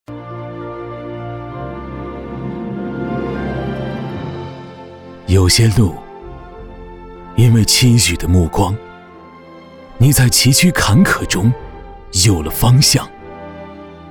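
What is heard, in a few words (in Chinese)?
走心沙哑形象片; 冠粤路桥建档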